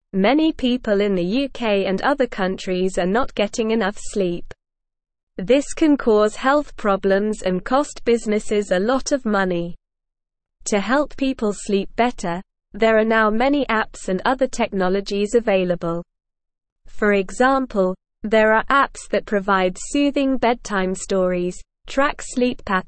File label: English Newsroom Beginner SLOW Reading; Sleep Better with Technology and Apps